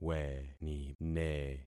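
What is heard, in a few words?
"wenine", concatenated syllables; Affixing experiment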